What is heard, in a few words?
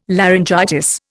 "laryngitis", Indian